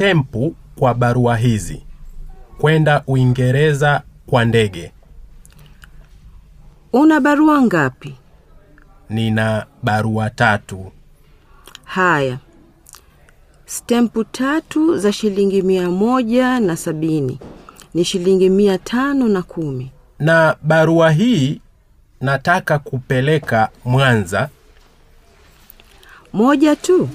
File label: Going to the Post Office Dialog, Swahili; Teach yourself Swahili audio